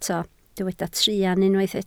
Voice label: "truan", Welsh

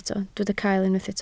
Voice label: "cael", Welsh

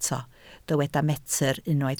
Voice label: "metr", Welsh

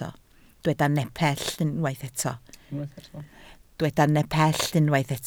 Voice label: "nepell", Welsh

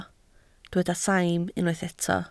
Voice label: "saim", Welsh

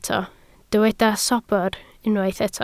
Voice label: "sobr", Welsh

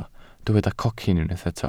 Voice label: "cocyn", welsh

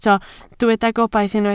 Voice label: "gobaith", Welsh

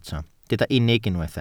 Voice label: "unig", welsh

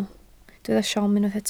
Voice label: "siom", welsh

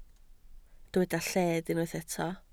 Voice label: "lled", Welsh

